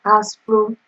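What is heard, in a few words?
"aspro", Nigerian Pidgin, female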